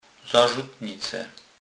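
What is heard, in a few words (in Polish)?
"zarzutnice", Kurpie dialect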